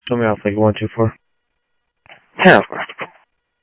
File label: scanner audio, Sheriff